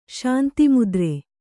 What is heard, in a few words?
"ಶಾಂತಿಮುದ್ರೆ", Kannada